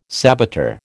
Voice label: "saboteur", American